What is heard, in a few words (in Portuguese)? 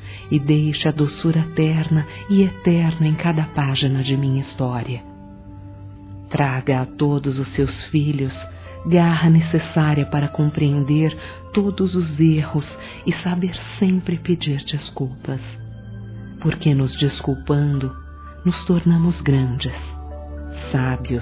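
Pedido a Ave Maria, Feminino; Telemensagens; Desculpas; Telemensagens 2017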